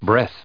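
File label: "breath", English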